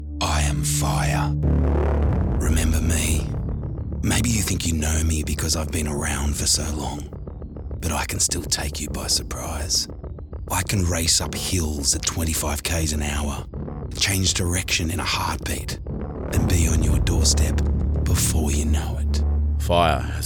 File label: Radio Indigenous